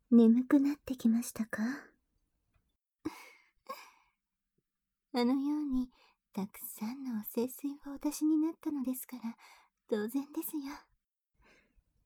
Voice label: 添い寝, 効果音なし

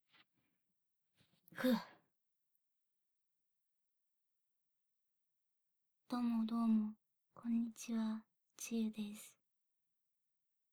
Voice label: 声優コメントボイス

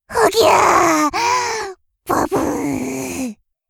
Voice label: 幼児退行, 寝言

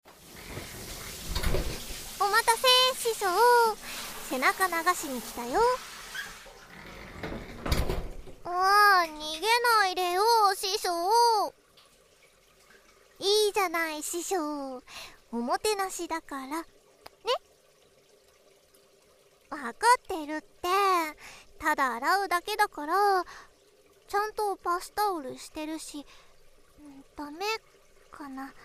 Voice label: リバーブなし; めがみそふと; ぼっちでかわいくてほっとけない妖狐の耳かき; お風呂リバーブなしver

